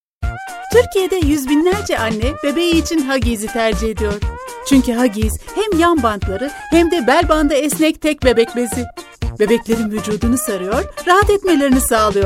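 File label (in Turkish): turkish female commercial; voice demos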